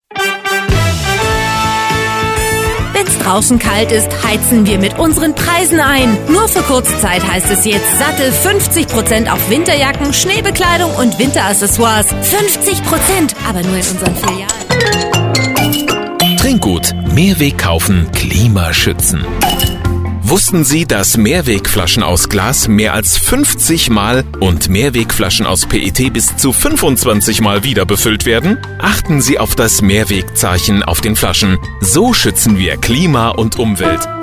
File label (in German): Spots